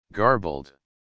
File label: "garbled"